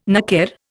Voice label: "naker", Indian

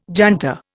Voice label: "junta", Indian

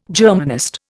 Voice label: "Germanist", Indian

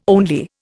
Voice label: "only", Indian

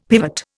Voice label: "pivot", Indian; Dictionary

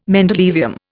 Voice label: "mendelevium", Indian

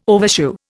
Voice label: "overshoe", Indian

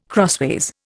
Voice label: "crossways", Indian

